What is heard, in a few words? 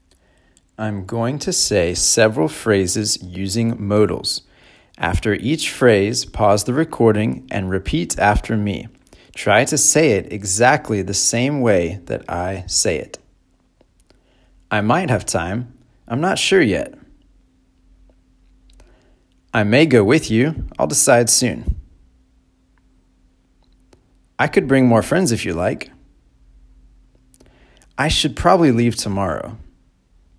Shadowing Exercise; Modals